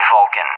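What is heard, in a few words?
"Vulcan", English; USA; AirDefence; DCS; Allied Flight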